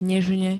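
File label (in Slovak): "nežne", Slovak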